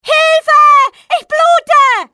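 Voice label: im bleeding, female; XBOX; civ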